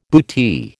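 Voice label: "bootee", American English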